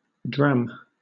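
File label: "dram", British English; pronunciations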